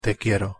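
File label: pronunciation "te quiero", Spanish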